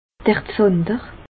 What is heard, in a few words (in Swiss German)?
"zander", alsace